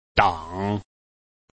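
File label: "dang", Chinese, tone 3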